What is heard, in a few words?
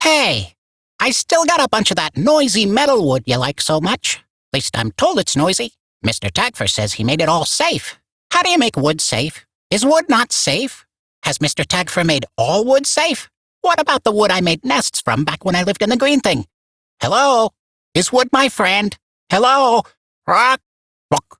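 Barks Bird, English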